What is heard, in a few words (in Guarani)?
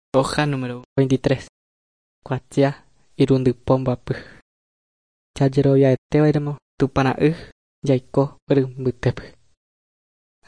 guarani mbya; good news and words of life; bible